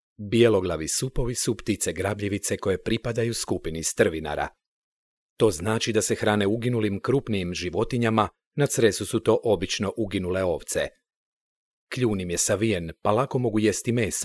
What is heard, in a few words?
male croatian voice over talent narrative